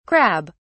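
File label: "crab (s)", British English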